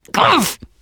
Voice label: reaction negative special, teen girl; player; survivor; voice

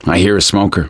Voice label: heard smoker, survivor voice; gambler

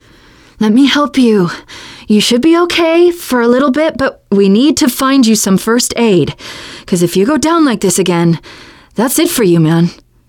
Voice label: revive critical friend, teengirl; player; survivor; voice

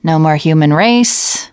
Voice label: second saferoom, teengirl, voice

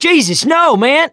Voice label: reaction negative, survivor voice mechanic

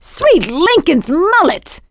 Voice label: reaction negative, survivor voice; producer

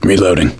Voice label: reloading quiet; gambler

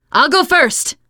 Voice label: follow me, teengirl, voice

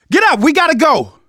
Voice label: revive friend loud, survivor voice; Left 4 Dead 2; left4dead2 dlc3

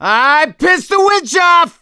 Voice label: witch chasing; gambler

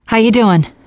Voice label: revive friend, teengirl

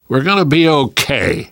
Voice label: hurrah, survivor voice; Left 4 Dead 2; left4dead2 dlc3

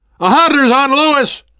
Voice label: hunter pounced, survivor voice namvet; Left 4 Dead 2; left4dead2 dlc3

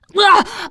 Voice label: boomer reaction, teengirl; player; survivor; voice

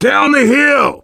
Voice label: directional, voice, biker

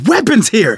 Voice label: spot weapons, survivor voice; Left 4 Dead 2; left4dead2 dlc3

